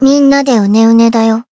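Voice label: limit break, Japanese; nagi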